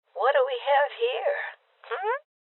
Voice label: Voices Open Safe Female Old; rebel cops 2019